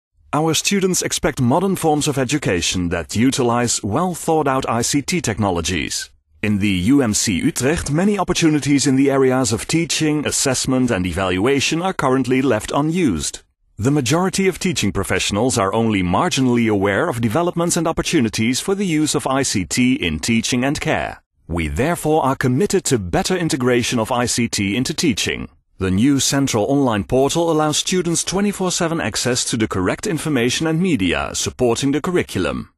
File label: english corporate, maenner